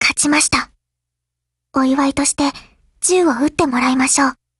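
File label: Thunder50 WIN Japanese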